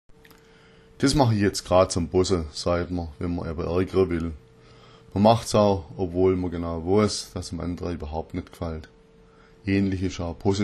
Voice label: zombossa, schwaebisch

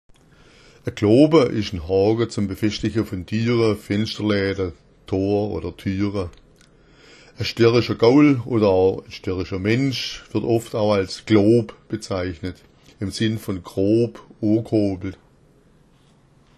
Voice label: "kloba", schwaebisch; Ein Kloba ist ein Haken zum Befestigen von Fenstern, Fensterläden, Toren.

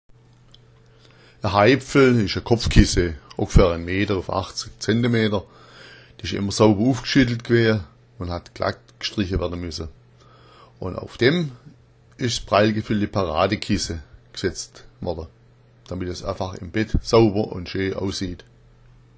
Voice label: haipfel, schwaebisch

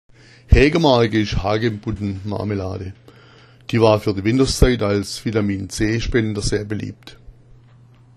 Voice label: haegamark, schwaebisch

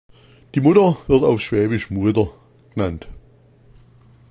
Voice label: muader, schwaebisch; Die Mutter wird auf schwäbisch Muader genannt

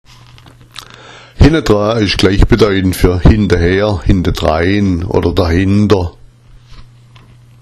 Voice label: hennadra, schwaebisch; Henna'dra wird genutzt für: hinterher, hinterdrein, dahinter

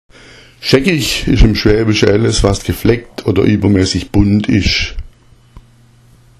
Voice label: "scheckich", schwaebisch; Schäckich ist im schwäbischen alles, was gefleckt oder übermäßig bunt ist.

